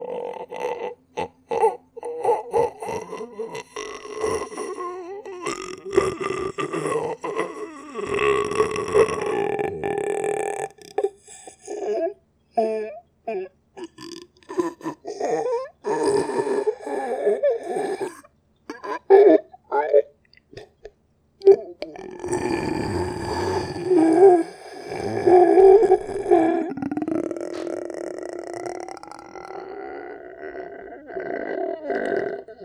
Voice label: Male Ghost Hunting; Phasmophobia